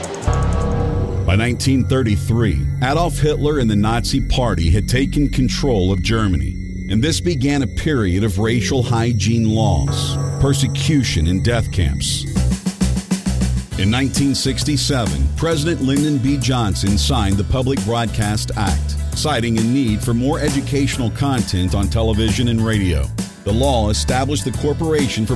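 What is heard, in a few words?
American English, Documentary; GoLocalise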